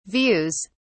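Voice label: "views", British English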